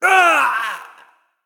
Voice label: Male Nightborne Death